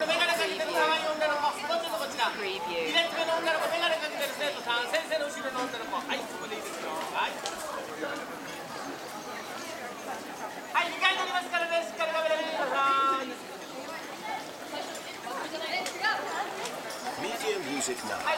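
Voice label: ambience public chatter male speaker street Tokyo Japan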